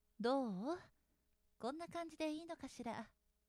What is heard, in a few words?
woman